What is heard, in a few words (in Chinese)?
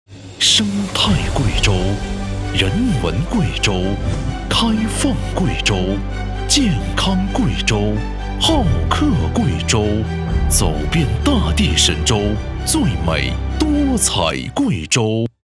男 电视台; CCTV综合-贵州-15″